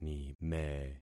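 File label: "nime", concatenated syllables; Affixing experiment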